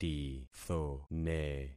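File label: "tithone", concatenated syllables; Affixing experiment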